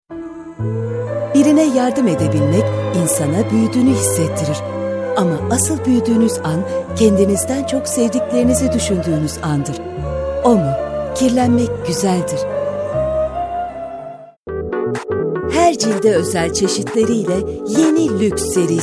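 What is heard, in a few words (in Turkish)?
turkish female commercial; voice demos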